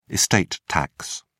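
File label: "estate tax", British English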